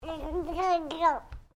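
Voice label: MALE 2 year old CURIOUS; Talking Sound Effects; Talking (Sound Effects:Voice)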